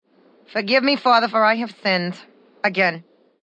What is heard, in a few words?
Sinned Again, Female; Talking Sound Effects; Sound Effects:Voice; VoiceBox